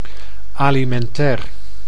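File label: "almentair", Dutch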